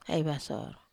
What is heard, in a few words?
"ai vasor", Wamesa